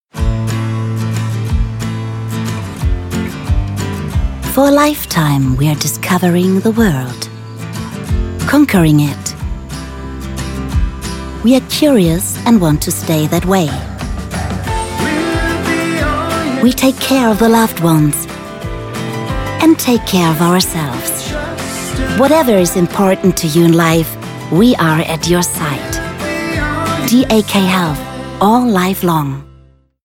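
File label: English; DAK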